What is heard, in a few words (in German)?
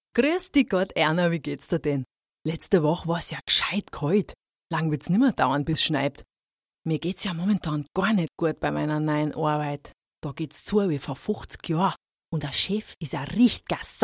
Dialekt Bayrisch